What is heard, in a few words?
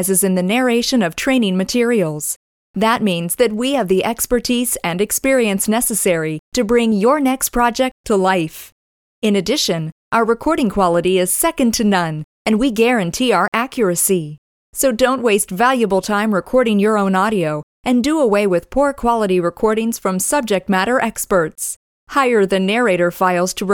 female talent